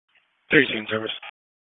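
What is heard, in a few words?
EMS DISP, radio; wake; p25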